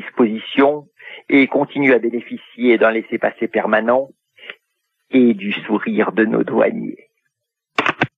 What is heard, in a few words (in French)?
Soucoupe en bois repondeur